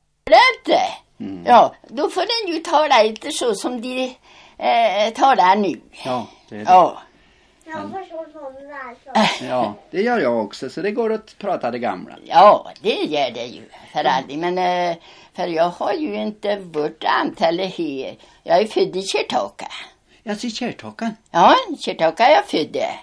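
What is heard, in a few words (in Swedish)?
Frändefors Dalsland, Svenska sagor, dialekter; Folkminnen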